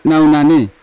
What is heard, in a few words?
"naunane" tongue, Yawa